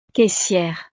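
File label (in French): "caissiere", French; profesiones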